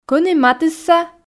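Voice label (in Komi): кӧні матысса ..., Komi, female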